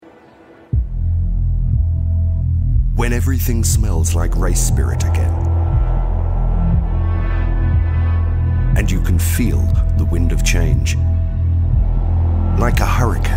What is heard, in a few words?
Ad Voice; BMW MOTORSPORT